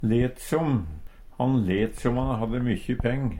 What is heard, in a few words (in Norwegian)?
let som, Numedalsmål; lata som; Uttrykk